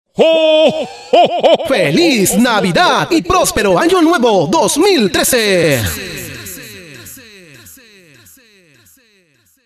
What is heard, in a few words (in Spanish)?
cuña feliz navidad